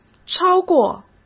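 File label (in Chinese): "超过", Mandarin; chinese-flashcards; hsk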